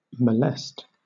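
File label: "molest", British English; pronunciations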